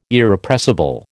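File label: "Irrepressible", American English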